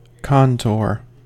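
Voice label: "contour", US English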